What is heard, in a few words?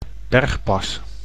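"bergpas", Dutch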